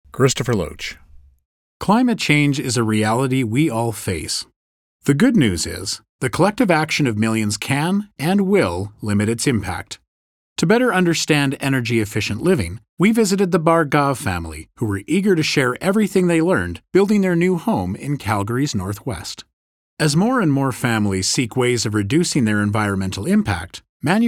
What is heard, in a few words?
Narration